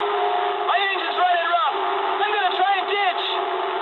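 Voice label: damaged engine mood low, speech English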